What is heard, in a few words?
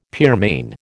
"pearmain", American